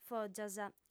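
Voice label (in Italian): "fògias", lingua sarda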